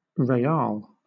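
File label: "real", British English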